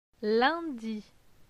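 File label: "Lundi", French; phonetique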